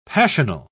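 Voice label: "passional", British English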